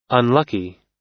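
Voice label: "unlucky", American English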